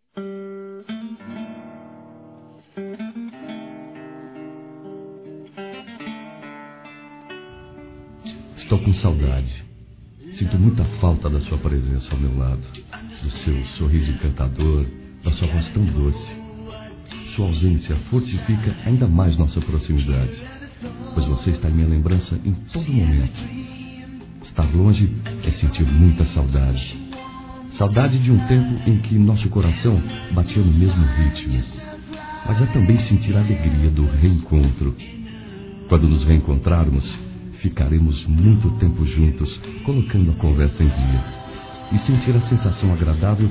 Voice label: SAUDADES ROMANTIC. M

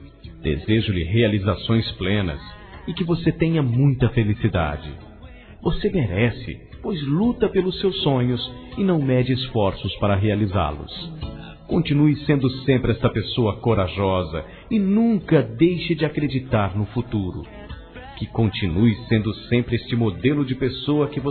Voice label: BOA SORTE M, masc; Telemensagens; Telemensagens 2017

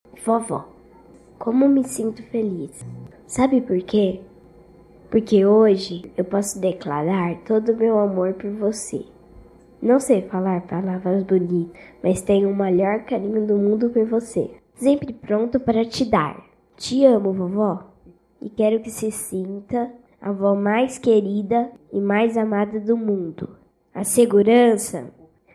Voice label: DIA DA AVÓ INFANTIL, F; Telemensagens; DATAS COMEMORATIVAS

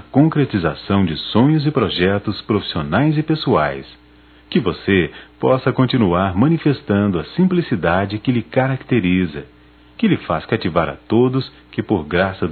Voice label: Ano novo neutro m; Telemensagens 2017; Natal e ano novo

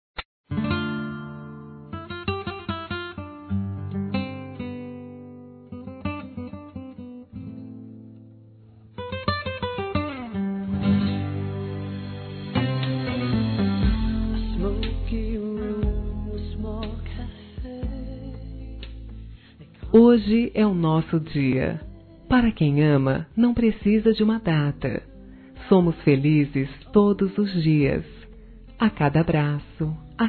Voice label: SUAVE F, Dia Dos namorados, fem; Telemensagens 2017

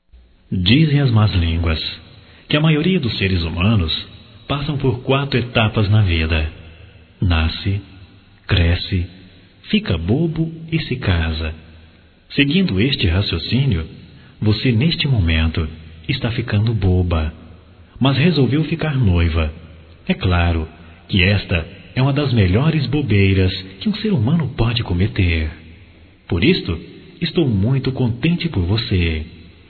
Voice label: Cumprimento Casamento Masculino; Casamentos; fem; Telemensagens 2017

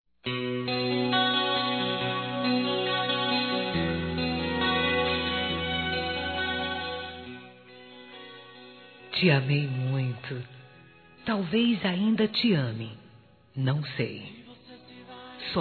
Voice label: Ex Esposa Feminino; Telemensagens; Românticas

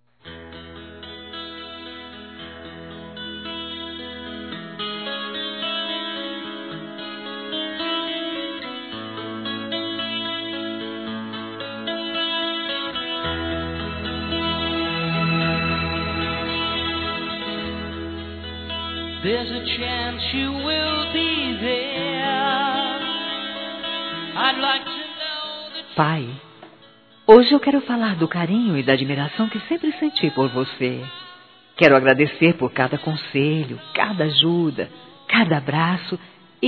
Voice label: NEUTRO Feminino, Telemensagens, Dia dos Pais; Telemensagens 2017

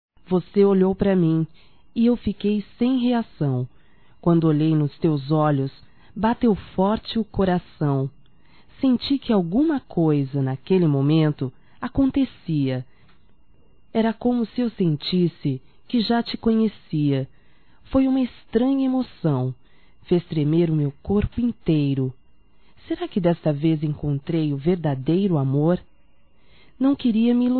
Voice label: ROMANTICA(F), Românticas, fem

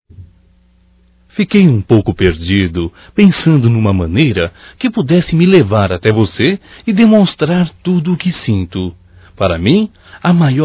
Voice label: Romântico Masculino, Telemensagens

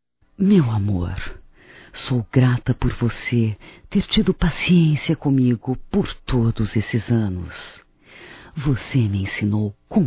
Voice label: AGRADECIMENTO (F); Românticas

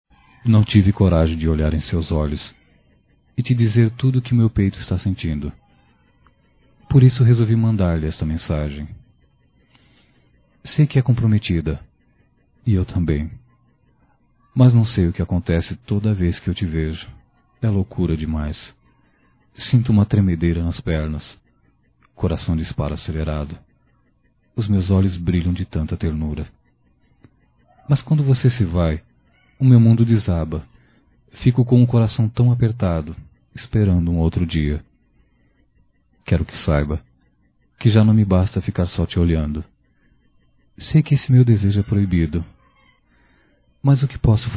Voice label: Amor Proíbido Masculino; Telemensagens 2017

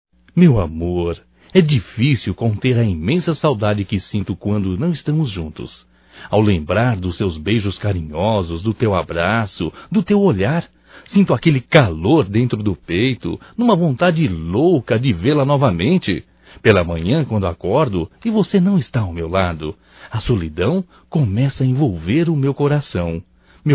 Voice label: SAUDADES ROMANTICA, Só Voz, masc; Telemensagens; Telemensagens 2017

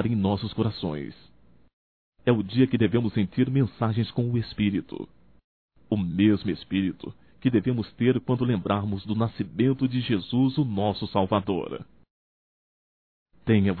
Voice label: Neutro Masculino, Natal; Telemensagens 2017; Natal & Ano Novo

